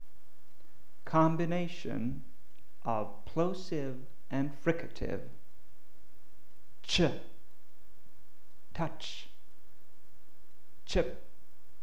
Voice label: plosive fricative; ELiX